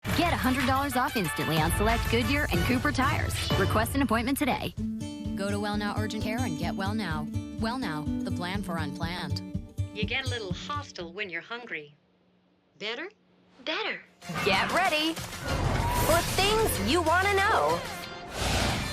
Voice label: Voiceover Reel